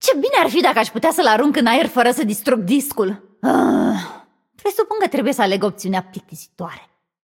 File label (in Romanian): Room2 VO Puzzle View, vo, ro-ro